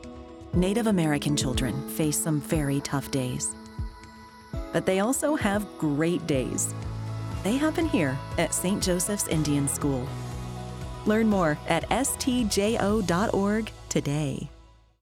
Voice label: Radio PSA; Love My Day